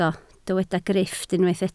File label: "grifft", Welsh